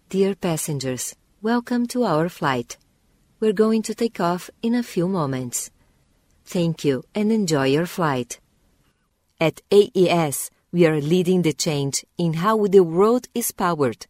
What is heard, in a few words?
F, PT BR, acc eng